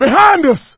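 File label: watch out behind, voice, male; Left 4 Dead 2; coach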